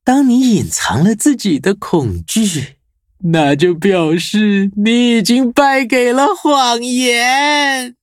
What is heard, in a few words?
loading zh-hant; cookie run